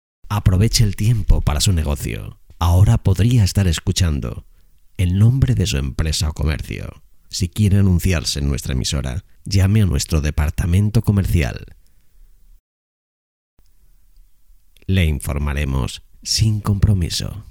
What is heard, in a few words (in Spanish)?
publicidad